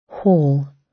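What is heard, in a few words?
"haul", British; Design and innovation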